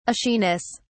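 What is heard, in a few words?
"ashiness", English, British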